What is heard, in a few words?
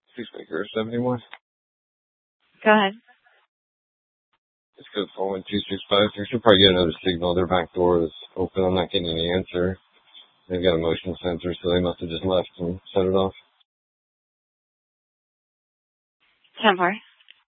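Police Department Dispatch, scanner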